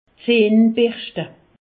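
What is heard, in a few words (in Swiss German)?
"zahn", Alsatian; medecine; brosser les dents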